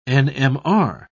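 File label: "nmr", British English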